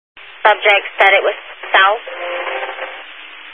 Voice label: Highway Patrol, Phoenix Metro East Area, scanner

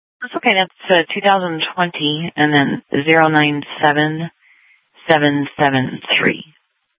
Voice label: Sheriff, scanner P25

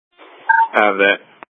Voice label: Public Transit System Light Rail Ops, scanner

